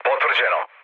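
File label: Serbian chat; War Thunder; speech srb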